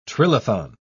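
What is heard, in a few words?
"trilithon", British English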